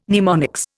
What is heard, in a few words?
"mnemonics", Indian